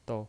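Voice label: "dou" Mandarin Chinese, neutral tone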